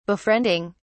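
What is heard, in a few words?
"befriending", en, uk British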